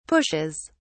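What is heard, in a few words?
"pushes", British English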